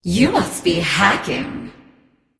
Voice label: vox emote; smite; arachne